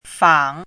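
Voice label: "fang" third tone, pinyin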